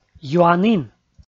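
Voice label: "юанін", Komi, male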